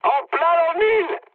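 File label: French bomb success mood high; War Thunder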